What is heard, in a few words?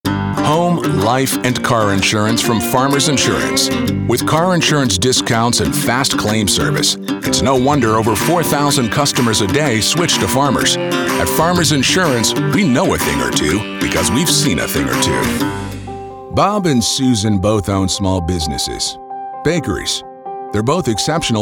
Explainer